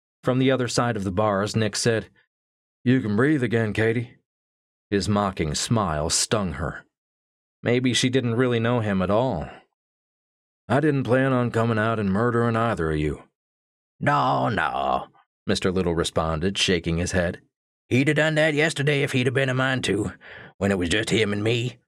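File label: Narration Sample Characters & Accent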